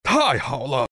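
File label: win, chinese; dota2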